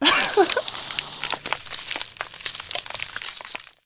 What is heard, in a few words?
woman